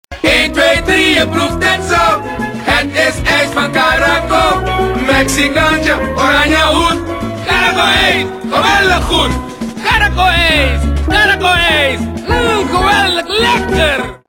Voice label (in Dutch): radiocommercial